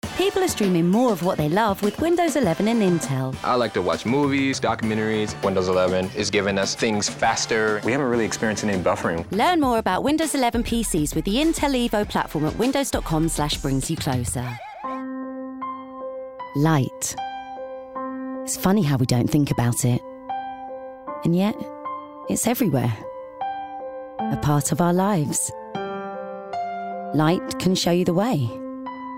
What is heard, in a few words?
Commercials Montage; Audio Book